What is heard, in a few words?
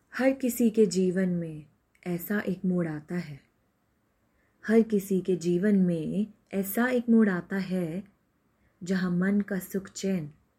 shabdho ki maala female version